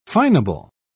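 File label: "fineable", British English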